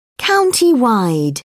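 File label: "countywide", British English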